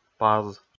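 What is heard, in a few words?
"паз", Komi, male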